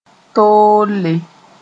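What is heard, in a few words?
"toolle", Mooré; errand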